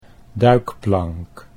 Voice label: "duikplank", Dutch

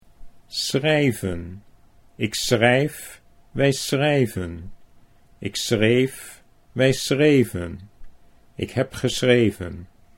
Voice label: "schrijven ik wij", Dutch

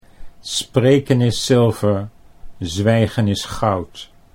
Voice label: "spreken zilver zwijgen goud", Dutch

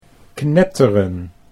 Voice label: "knetteren", Dutch

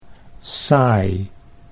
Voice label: "saai", Dutch

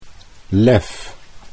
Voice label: "lef", Dutch

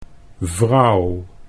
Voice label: vrouw

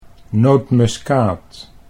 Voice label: "nootmuskaat", Dutch

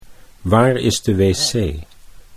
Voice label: "waar WC", Dutch

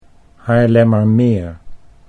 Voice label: "Haarlemmermeer", Dutch

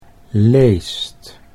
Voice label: "leest", Dutch

